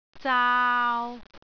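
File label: "zaau3", Cantonese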